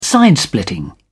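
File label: "side splitting", British English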